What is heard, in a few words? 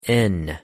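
"n", English; pronunciation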